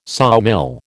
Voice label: "sawmill", American

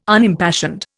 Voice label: "Unimpassioned", Indian

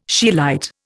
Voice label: "scheelite", Indian

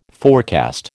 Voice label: "forecast", American

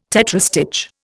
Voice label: "tetrastich", Indian

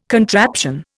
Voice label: "contraption", Indian

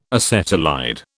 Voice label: "acetylide", British

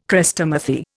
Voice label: "Chrestomathy", Indian

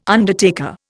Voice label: "undertaker", Indian

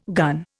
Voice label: "gun", Indian

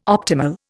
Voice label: "optimal", Indian

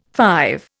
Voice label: "five", Indian

